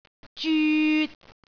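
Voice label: "zyut3", Cantonese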